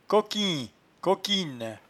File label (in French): "coquin", patois jura